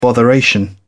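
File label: "botheration", British English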